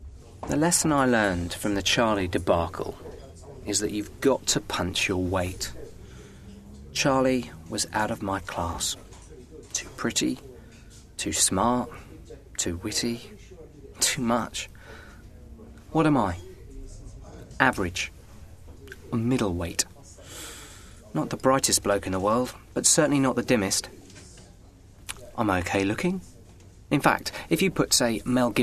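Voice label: drama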